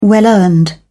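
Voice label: "well earned", British English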